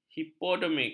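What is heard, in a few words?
"hipodermik", Malay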